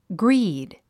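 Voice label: "greed", English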